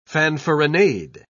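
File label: "fanfaronade", British English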